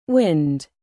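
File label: "bass", noun, British; wind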